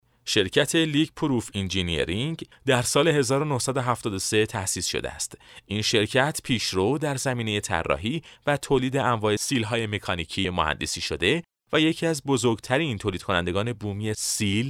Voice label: Farsi Male

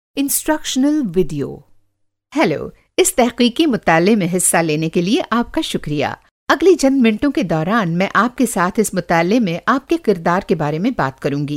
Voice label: Urdu Female

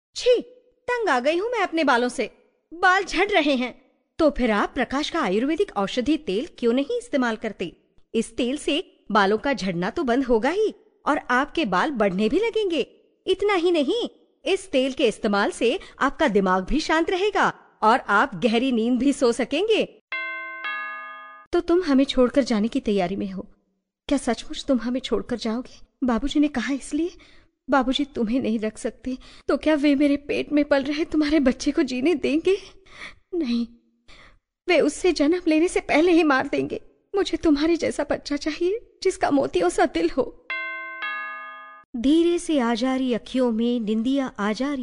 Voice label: Hindi Female

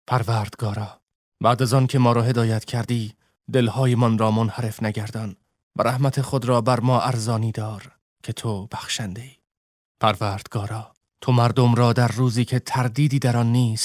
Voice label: Farsi Male

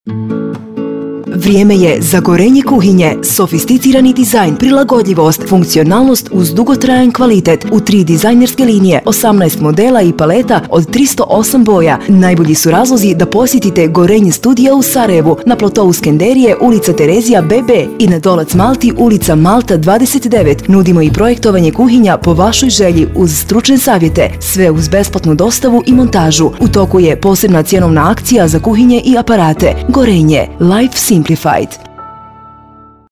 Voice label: bosnian female

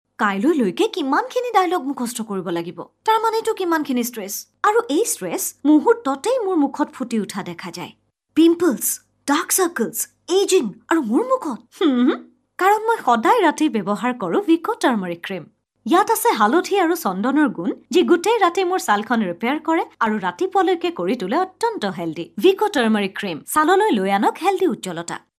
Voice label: assamese female

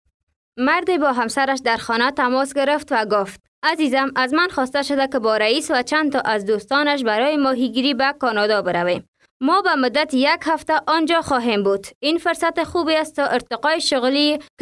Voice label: dari female

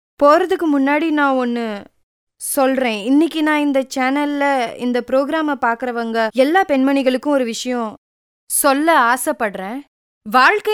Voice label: Tamil Female

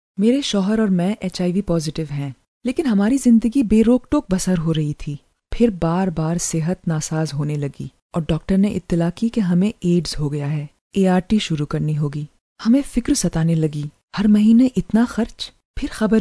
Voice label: Urdu Female

